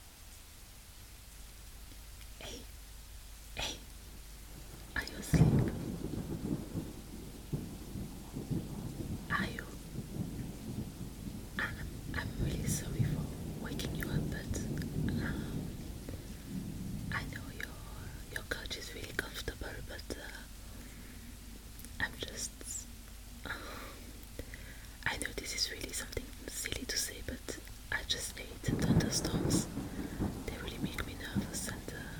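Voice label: [F4M][Improv][French Accent][Sweet][Funny]; [Friends To Lovers]; [After the Christmas Party]; [Scared Of Thunderstorms]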